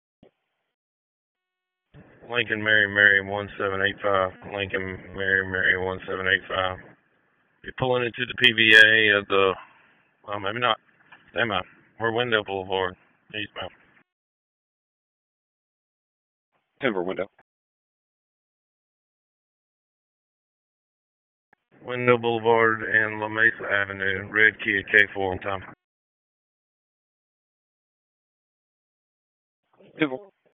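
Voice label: Sheriff's Office Dispatch; WSO DISP 1; wake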